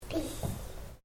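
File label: MALE 2 year old PLEASE; Talking; Voice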